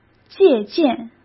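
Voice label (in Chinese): "借鉴", Mandarin Chinese; hsk; chinese-flashcards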